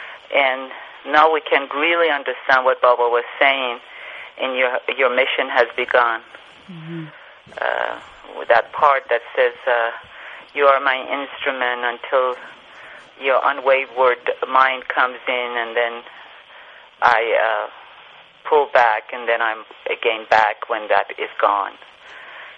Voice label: phone, there is only one glory; sofre & sleep over in cave